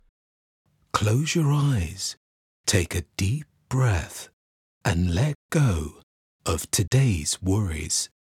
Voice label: Soothing For Meditation British Accent